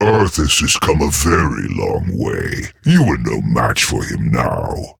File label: Male Nerubian Idle; Anubarak